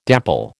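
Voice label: "dapple", American English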